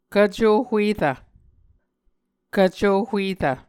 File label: "kvco huerv", Muscogee